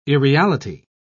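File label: "irreality", British English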